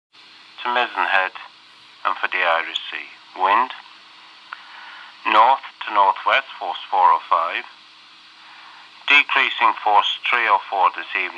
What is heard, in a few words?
sea area forecast VHF